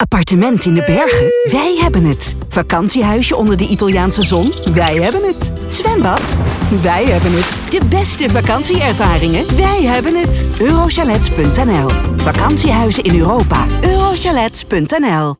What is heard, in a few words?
Commercial; OS Vakanties Eurochalets; GNR